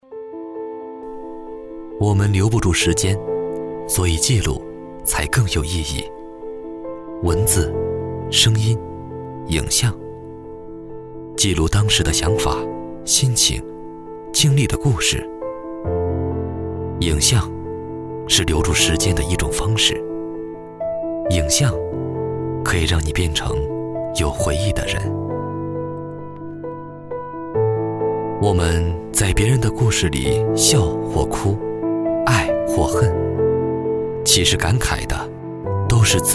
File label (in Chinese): 男97-广告; 优酷广告